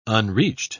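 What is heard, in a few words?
"unreached", British English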